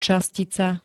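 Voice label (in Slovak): "častica", Slovak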